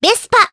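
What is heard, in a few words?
Vox Japanese; Cleo; Vespa; kingsraid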